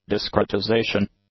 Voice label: "discretization", English; nouns